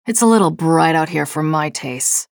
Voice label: chatter neutral; nyx